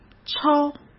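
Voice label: "抄", Mandarin Chinese; hsk; chinese-flashcards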